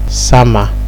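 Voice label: "sama", Nigerian Pidgin, male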